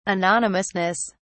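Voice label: "anonymousness", British English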